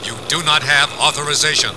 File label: Do Not Have Authorize, robot; robot b9